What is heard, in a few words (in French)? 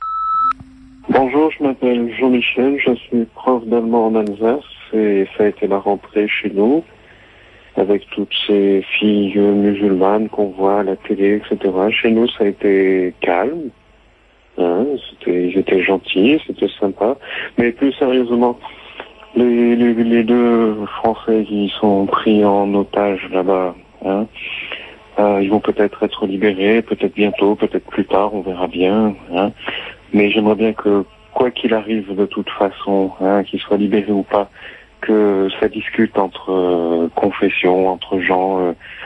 répondeur